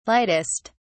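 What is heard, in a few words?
"lightest", English, British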